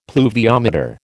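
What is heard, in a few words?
"pluviometer", American English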